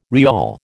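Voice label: "rial", American English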